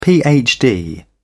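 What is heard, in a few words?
"phd", British English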